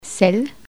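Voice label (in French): "sel", French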